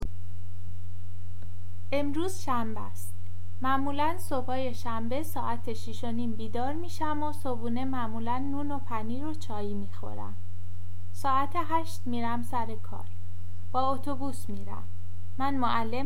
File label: pronunciation, Persian